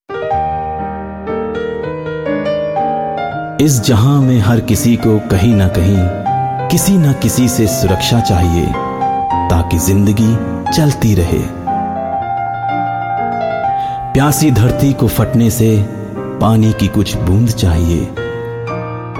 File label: Hindi; foreign languages